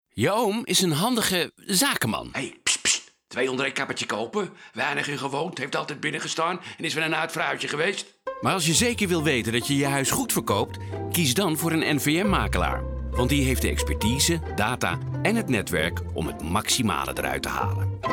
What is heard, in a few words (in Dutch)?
radiocommecial; handige oom